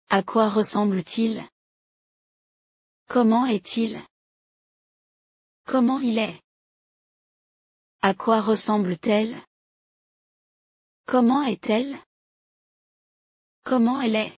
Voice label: physical description questions french pronunciation audio